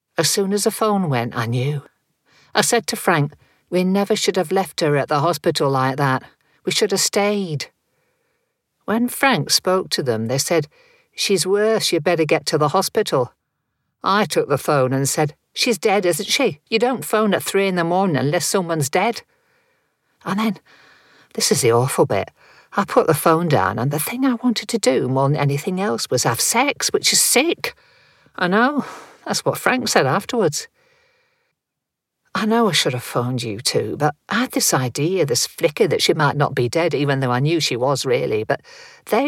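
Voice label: character northern, Warm Authoritative British Voice; teresa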